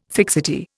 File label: "fixity", Indian